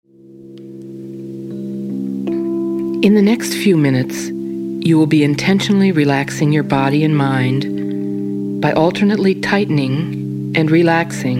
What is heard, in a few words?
CALM Progressive Relaxation